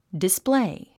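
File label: "display", English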